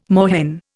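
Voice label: "moorhen", Indian English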